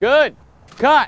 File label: "good" cut, expletives